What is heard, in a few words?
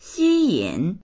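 "xi1yin3", Mandarin Chinese; xīyǐn; 吸引; 引きつける（感情や注意を）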